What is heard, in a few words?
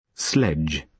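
"sledge", British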